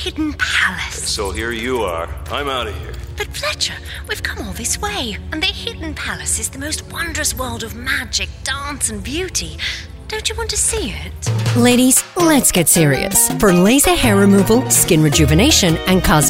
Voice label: Character